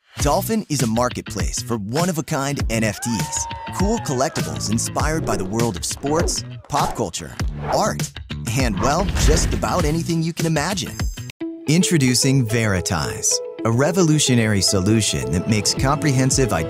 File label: Explainer, en-US